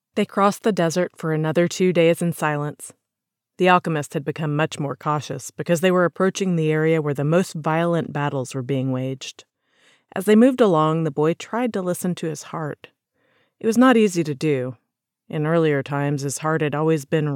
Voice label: Narration, Professional female storyteller; The Alchemist